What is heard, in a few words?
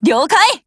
PHRASE JP; 97typeSMod